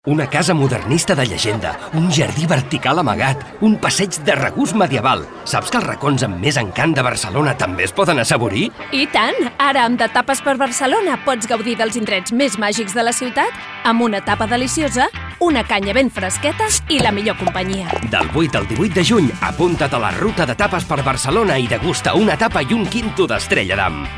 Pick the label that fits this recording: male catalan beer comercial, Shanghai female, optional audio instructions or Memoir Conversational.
male catalan beer comercial